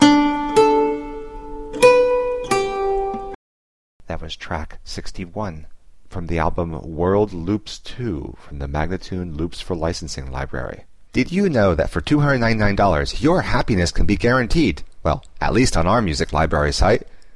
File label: D spoken, World Loops; World Loops 2; MagnaLoops